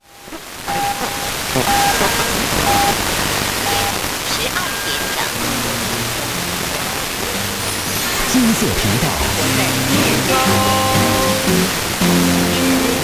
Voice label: 89.0MHz 3 station confusion